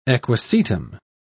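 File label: "equisetum", British English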